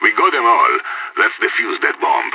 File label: no enemies left bomb, radio; SAS